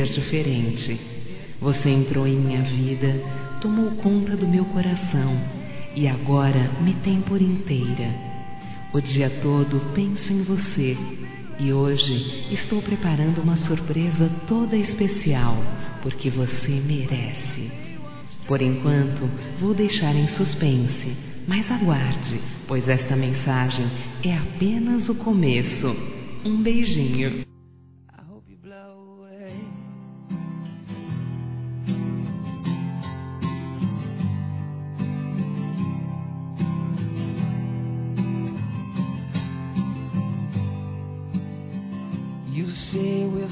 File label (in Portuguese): ANIVERSÁRIO ROMANTICO F; Aniversários; fem